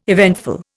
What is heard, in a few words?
"eventful", Indian